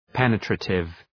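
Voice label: "penetrative", English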